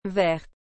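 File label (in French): "verte", French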